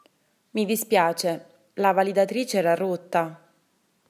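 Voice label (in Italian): Validatrice Rotta, Italian; italybytrain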